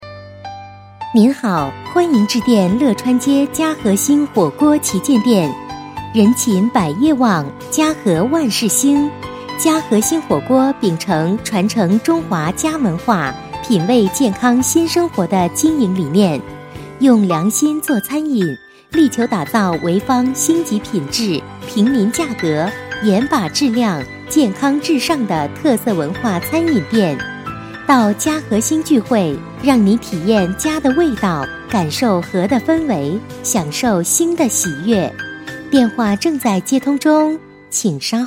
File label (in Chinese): 女 样音